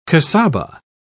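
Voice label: "casaba", English, British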